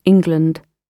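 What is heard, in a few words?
"england", British English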